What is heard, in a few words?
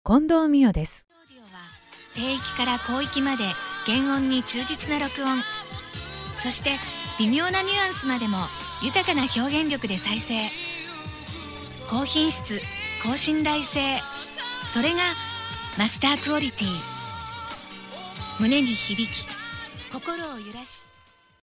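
female tv commercial English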